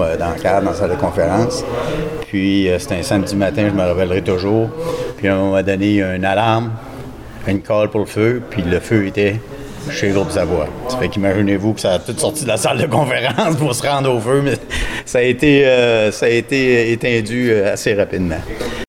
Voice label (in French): reportage camion pompier